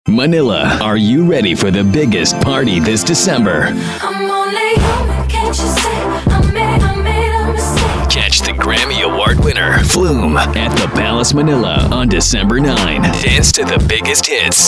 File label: English, Cool, Swag